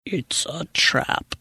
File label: quietly saying its a trap